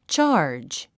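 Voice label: "charge", English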